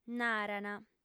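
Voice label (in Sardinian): "narant", female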